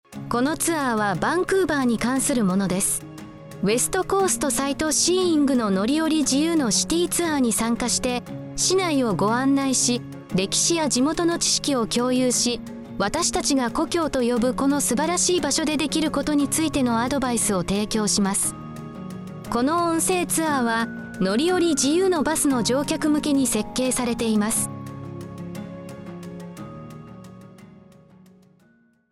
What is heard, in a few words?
Japanese